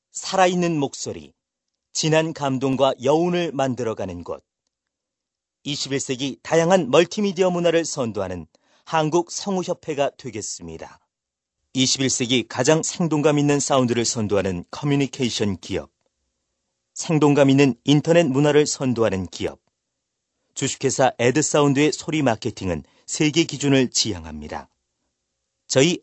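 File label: Korean male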